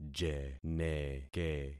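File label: "jeneke", concatenated syllables; Affixing experiment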